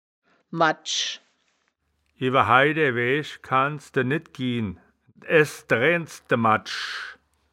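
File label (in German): "maddsch", wittlicher woerterbuch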